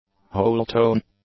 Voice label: "whole-tone", English; nouns